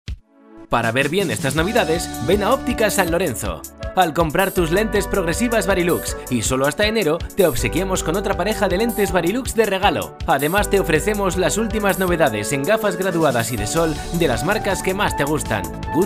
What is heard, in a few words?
locutor spanish voiceover; Spanisch Sprecher